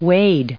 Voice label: "wade", English

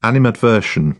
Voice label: "animadversion", British; AmericanBritish

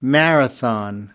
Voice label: "marathon", American; AmericanBritish

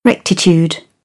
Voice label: "rectitude", British; AmericanBritish

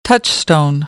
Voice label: "touchstone", American English; oʊ - əʊ

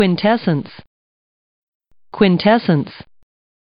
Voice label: quintessence, English

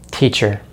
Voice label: "teacher", American English; easypronunciation

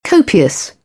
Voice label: "copious", British; oʊ - əʊ; English

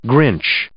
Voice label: "grinch", English

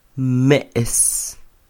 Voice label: "me's", Quiché; gato; cat; INALI; UTexas; CHQETA

